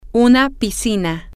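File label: a Swimming Pool, Spanish, female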